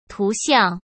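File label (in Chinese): "图像", Mandarin Chinese; chinese flashcards; hsk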